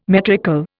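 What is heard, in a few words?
"metrical", English, Indian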